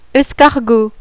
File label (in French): "escargot", French; documentaire